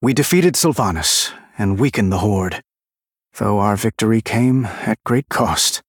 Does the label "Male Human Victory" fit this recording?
Yes